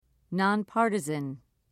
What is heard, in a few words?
"non partisan", en uk